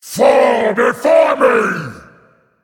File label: Male Demon; Mannoroth; Hero Power